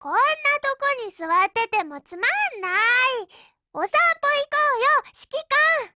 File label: dialogue JP